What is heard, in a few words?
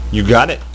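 "you got it", spoken; HyperHangMan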